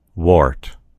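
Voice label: "wart", American English; gcompris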